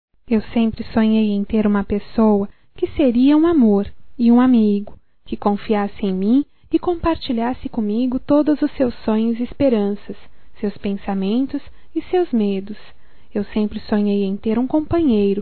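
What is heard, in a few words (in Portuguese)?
PASCOA ROMANTICA, female, Telemensagens, Só Voz; Telemensagens 2017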